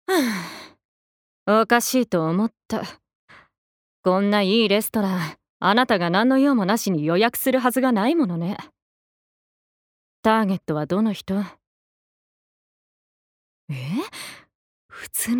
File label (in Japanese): 外画